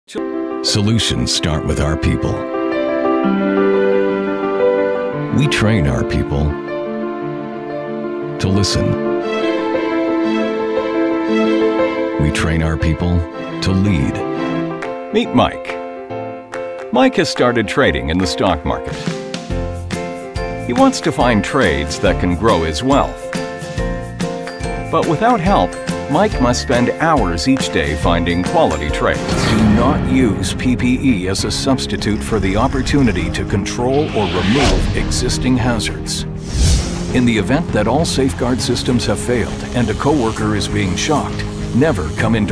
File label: American Male; Long Form